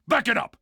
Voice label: back it up loud, combine soldier; hl2